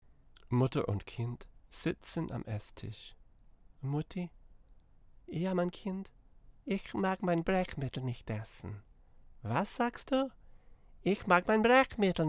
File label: VO German; Realios A9031